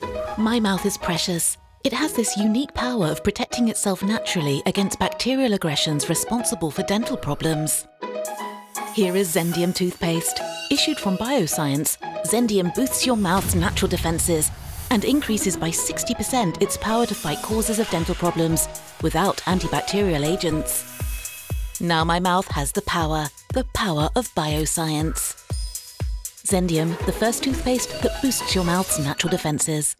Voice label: commercial; zendium